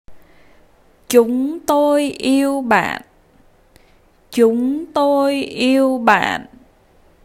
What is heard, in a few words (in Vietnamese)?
We all love you, vietnamese; Appreciation